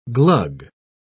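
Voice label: "glug", English, British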